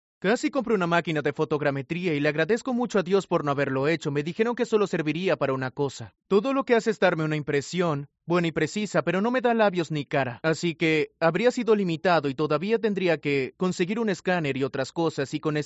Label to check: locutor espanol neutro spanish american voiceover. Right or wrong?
Right